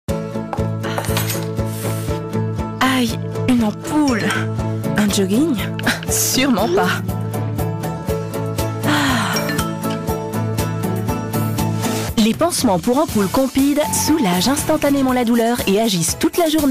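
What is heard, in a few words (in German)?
French, Dynamisch; Fremdtake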